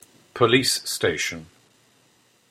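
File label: "police station" English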